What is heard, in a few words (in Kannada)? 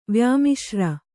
"ವ್ಯಾಮಿಶ್ರ", kannada